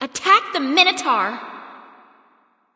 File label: attack m; smite; bastet